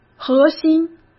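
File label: "核心", Mandarin Chinese; hsk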